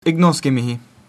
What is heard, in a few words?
"sorry", Latin